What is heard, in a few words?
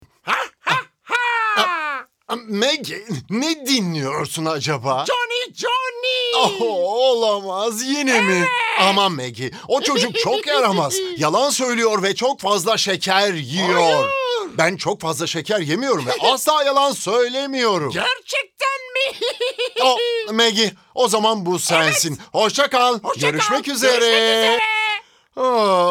turkish animation